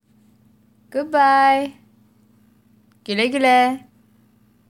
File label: goodbye turkish